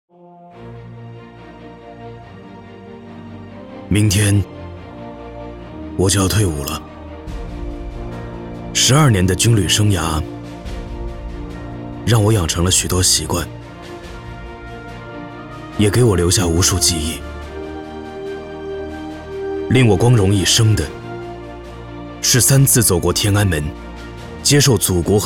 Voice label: 坚毅年轻; 最后的军礼

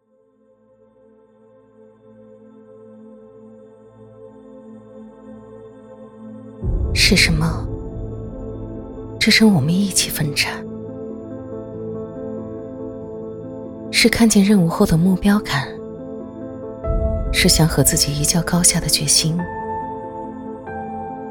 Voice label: 走心旁白; 花样年华

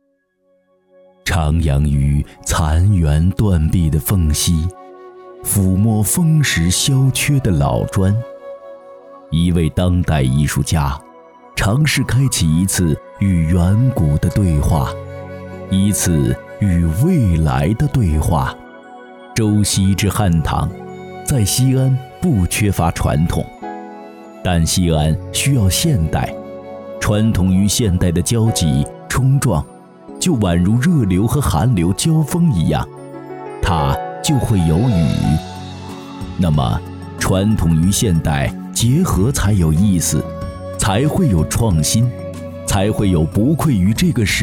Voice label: 纪录片历史类沧桑感; 配音圈

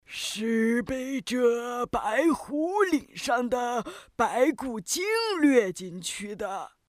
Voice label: 老太太角色

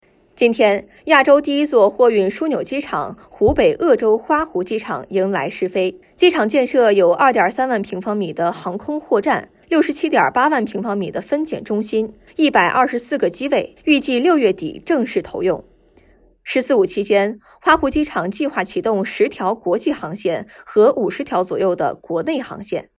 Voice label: 专业播音腔 温柔大气 沉稳有厚度, 女, 青年（18-35）, 普通话, 专题片/宣传片, 温柔甜美

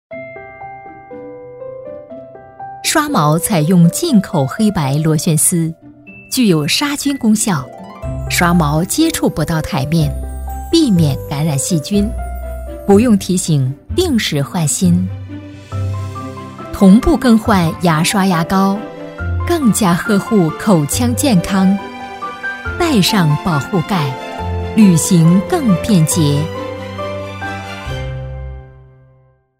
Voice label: 广告 口腔健康

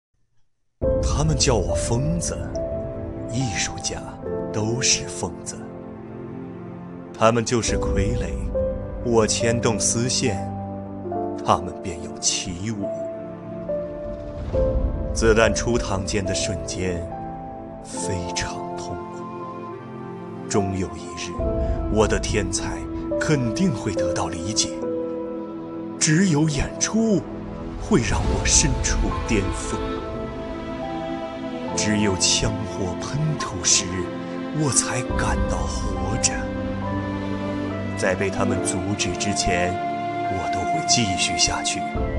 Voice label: 游戏 配音

